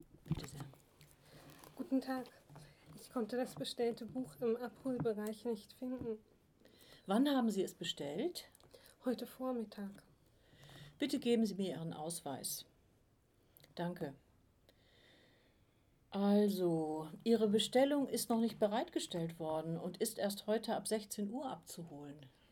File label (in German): Dialog